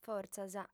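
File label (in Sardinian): "fortzas", female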